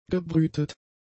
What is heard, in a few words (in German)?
"gebrütet", German; adjectives